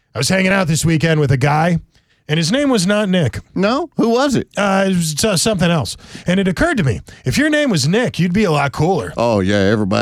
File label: RADIO AD